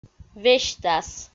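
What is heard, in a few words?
"весьтас" Komi, female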